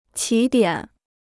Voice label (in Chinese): "起点", Chinese